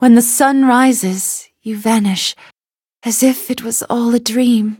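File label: loading, English; Cookie Run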